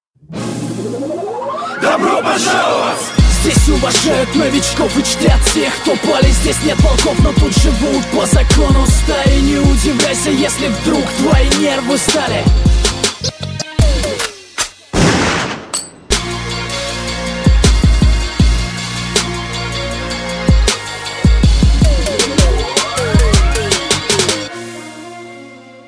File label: russian rap